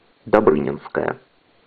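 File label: Metro Dobryninskaya, Russian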